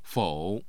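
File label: "fou", Mandarin Chinese, tone 3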